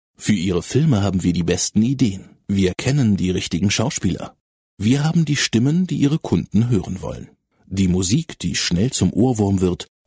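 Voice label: German male voice over, narrative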